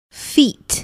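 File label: "feet", English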